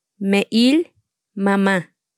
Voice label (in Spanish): Palabras en tsotsil, Me'il - Mamá